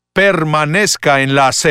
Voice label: Stay on Sidewalk (Spanish); Pathfinder

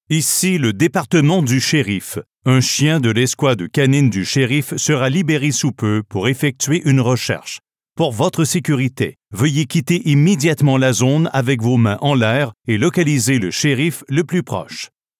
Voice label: Sheriff Canine Search (French Canadian); Pathfinder

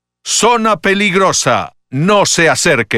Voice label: Hot Zone (Spanish); Pathfinder

